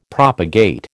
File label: "propagate", American